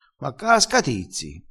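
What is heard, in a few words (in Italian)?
"scatizza", dialettale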